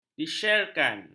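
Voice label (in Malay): "disyerkan", Malay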